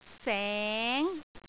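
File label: "seng2", Cantonese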